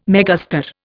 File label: "megastar", Indian